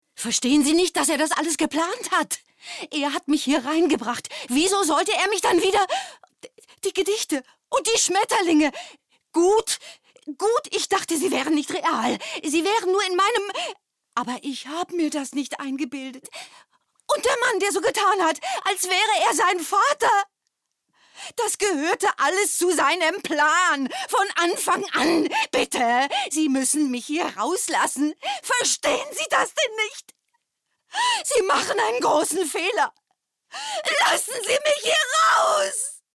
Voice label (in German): synchron